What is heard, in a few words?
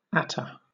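"attar" English, British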